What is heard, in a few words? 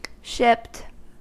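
"shipped", US English; pronunciations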